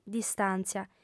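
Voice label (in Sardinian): "distanza", female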